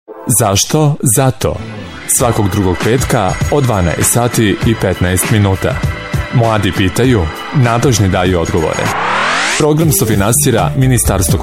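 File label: serbian male commercial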